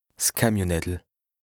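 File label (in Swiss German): "'s Kamionnettel", Alsace, Bas Rhin; OLCA; LEXIQUE INDISPENSABLE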